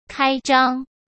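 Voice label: "开张", Mandarin Chinese; chinese flashcards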